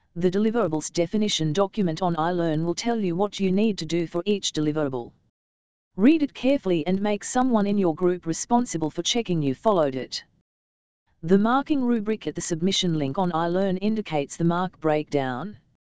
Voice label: dialog